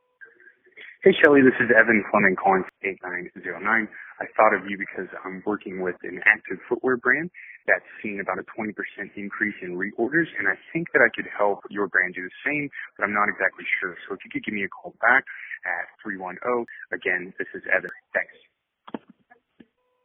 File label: Voicemail Sample; Factor 8